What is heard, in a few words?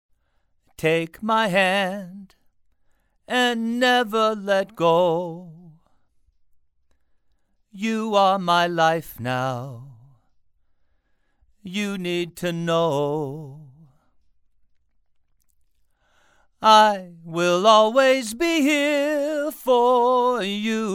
Male Vocals